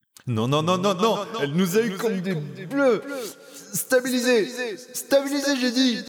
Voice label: Reverb Delay